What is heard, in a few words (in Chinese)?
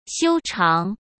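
"修长", Mandarin Chinese; chinese-flashcards; hsk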